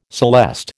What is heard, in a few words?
"celeste", American